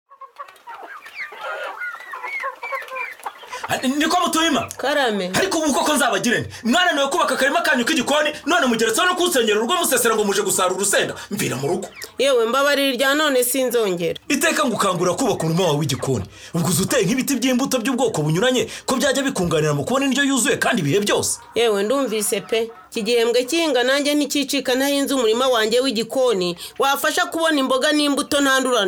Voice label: Radio Spot promoting kitchen gardens and fruit trees